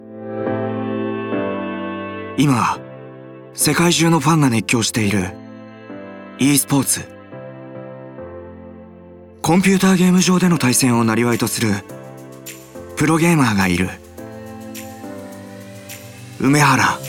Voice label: japanese documentaries

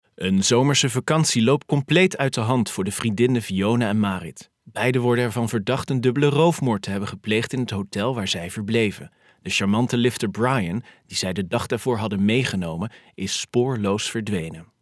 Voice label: dutch narration

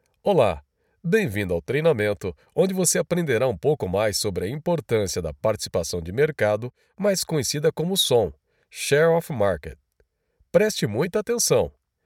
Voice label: portuguese brazilian e-learning

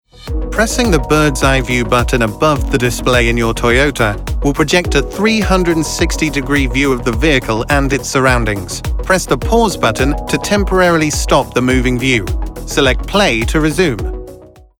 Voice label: English British automotive

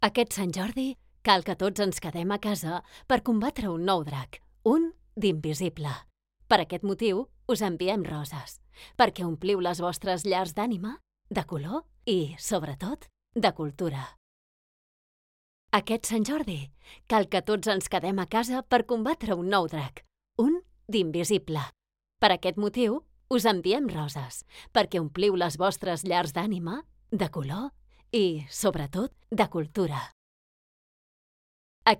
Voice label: catalan podcasts

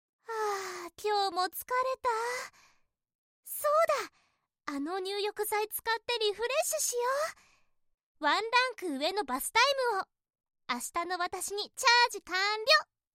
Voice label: japanese promos

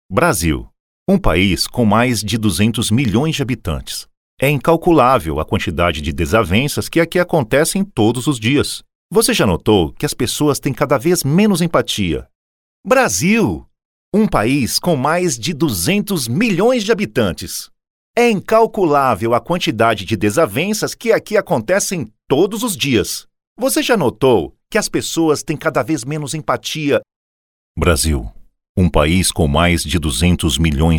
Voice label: portuguese brazilian commercial

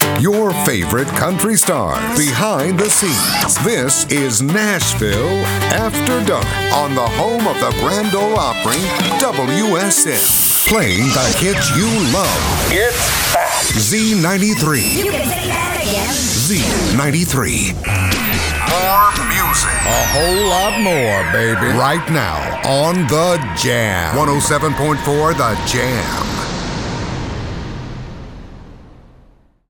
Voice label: english american radio imaging

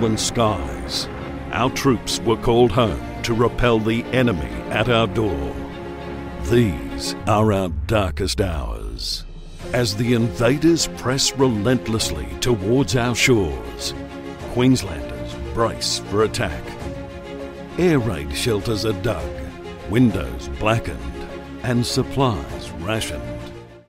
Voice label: english australian documentaries

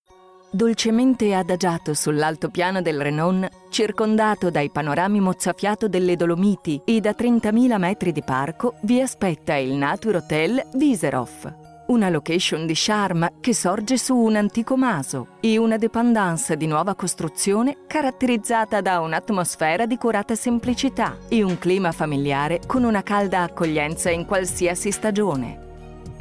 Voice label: italian corporate videos

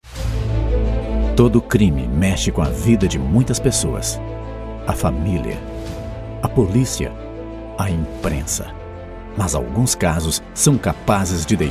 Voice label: portuguese brazilian movie trailers; locutor profissional no Brasil

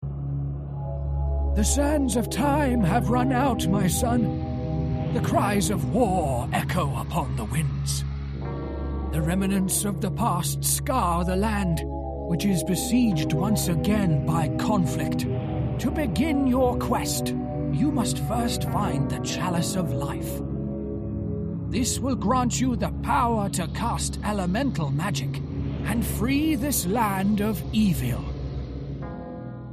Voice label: english british video games

